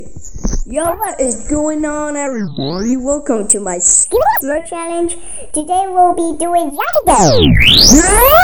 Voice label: goofy ahh voice